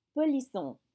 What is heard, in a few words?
"polisson", French